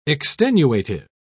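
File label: "extenuative", British English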